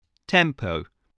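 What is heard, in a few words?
"tempo", English word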